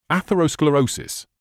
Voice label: "atherosclerosis", medical english uk; ˌæθ.ə.rəʊ.skləˈrəʊ.sɪs; ath-uh-roh-skluh-ROH-siss

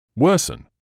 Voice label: "worsen", medical English

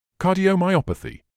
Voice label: "cardiomyopathy", medical english uk; ˌkɑː.diː.əʊ.maɪˈɒp.ə.θiː; kah-di-oh-mai-OP-uh-thee

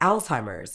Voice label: "Alzheimer's", Medical English; ˈæltsˌhaɪ.məz; ALTS-high-muhz

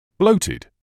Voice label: "bloated", medical english uk; ˈbləʊ.tɪd; BLOH-tid

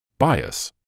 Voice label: "bias", medical english uk; BIGH-uhss; ˈbaɪ.əs

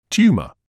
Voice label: "tumour", English

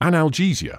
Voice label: "analgesia", medical english uk; ˌæn.əlˈdʒiː.ziː.ə; an-uhl-JEE-zee-uh

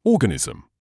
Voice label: "organism", English

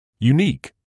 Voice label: "unique", medical English

